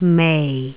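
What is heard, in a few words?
"mei4", Cantonese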